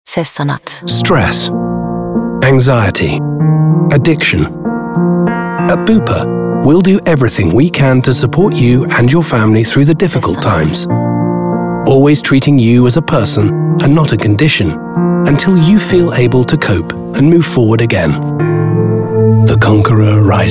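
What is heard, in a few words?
ENG British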